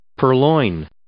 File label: "purloin", English